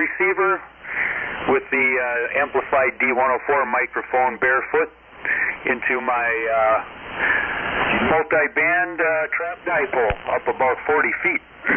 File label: Yaesu FL-101 & FR-101 D-104 Mic; Forestville, Wi.